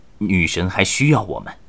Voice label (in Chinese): 撤退, BattleCry, 年轻的修士; ycrpg